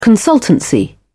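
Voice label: "consultancy", British English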